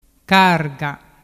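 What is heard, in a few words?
"carga", Vernazzese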